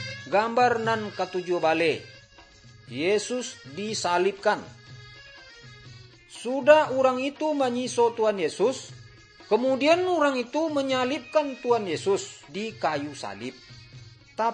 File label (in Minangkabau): good news minangkabau